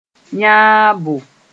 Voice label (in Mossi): "yãabo", moore